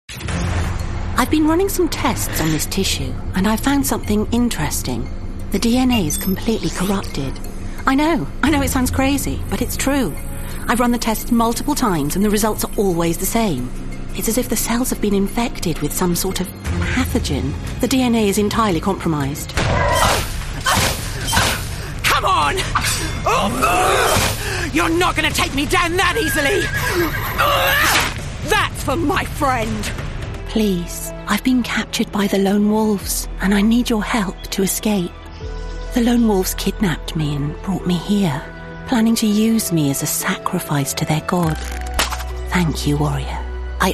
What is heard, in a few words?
english british video games